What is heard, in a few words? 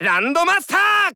Fox voice sample JP; SSB4